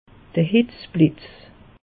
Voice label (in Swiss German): "hitze blitz", alsace; meteo